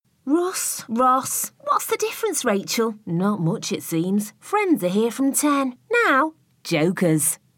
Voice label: Ident